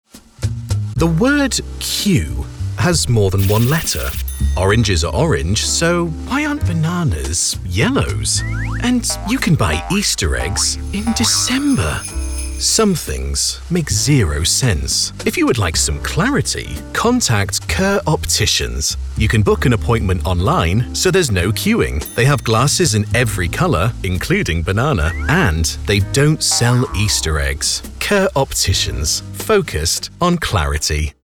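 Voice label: English male explainer; accents of england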